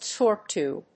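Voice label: "Torque tube", English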